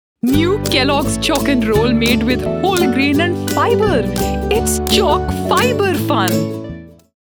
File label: Ad